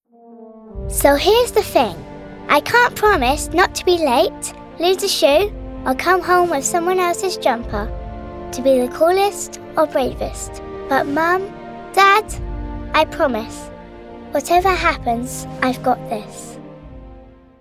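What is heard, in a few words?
English UK, female, commercial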